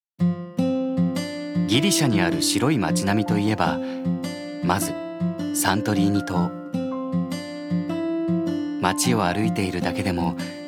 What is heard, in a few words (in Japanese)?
ナレーション, 男性; 所属：男性タレント